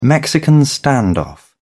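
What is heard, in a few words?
"mexican standoff", British English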